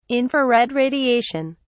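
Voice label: "infrared radiation", British English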